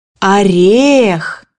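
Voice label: "nut", Russian; bukvar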